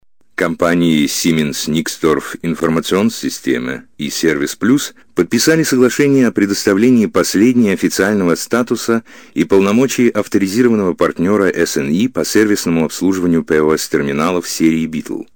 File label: Russian Male